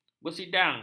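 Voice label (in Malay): "bersidang", Malay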